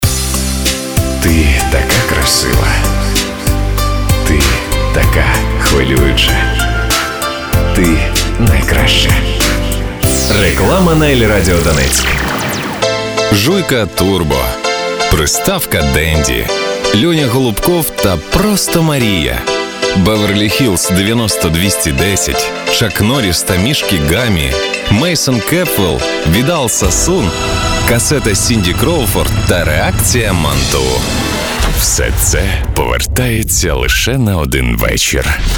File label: ukr, dictory men